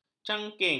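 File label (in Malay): "cangking", Malay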